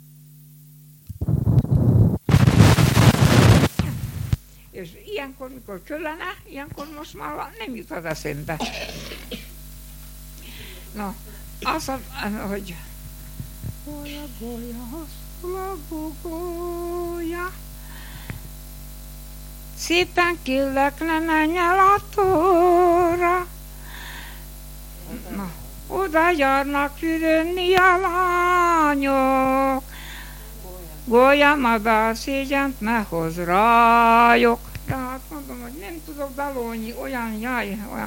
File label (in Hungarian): nyelvjaras gyujtesek